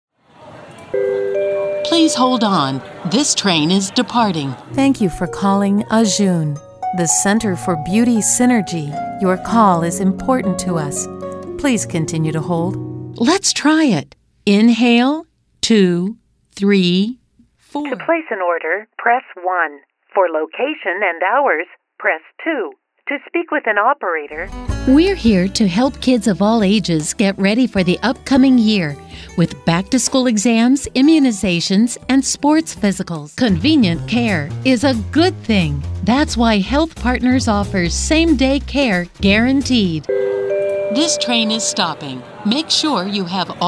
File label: IVR & telephony; 2023 IVR & telephony demo